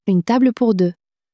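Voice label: "une table pour deux", French, female; flashcards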